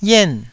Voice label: "yen4", taiwanese Syllables